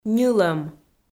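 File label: "ньылӧм", Komi, female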